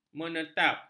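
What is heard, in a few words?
"menetap", Malay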